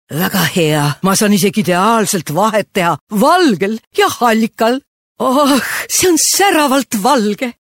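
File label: estonian female character; voice demos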